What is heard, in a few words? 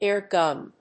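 "air gun", English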